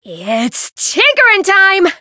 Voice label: variable chant; ashuthia